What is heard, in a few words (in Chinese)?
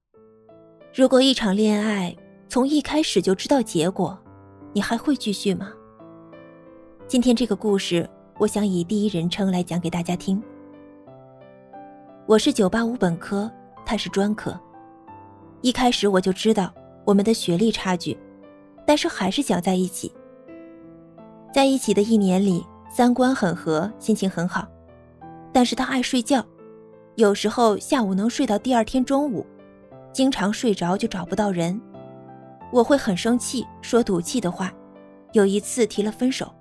女独白